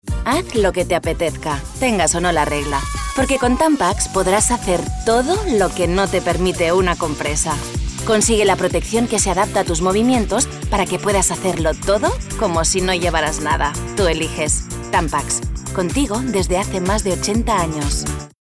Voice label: female spanish sanitary comercial; ideasonora